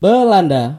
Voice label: "netherland", id; gcompris-data; geography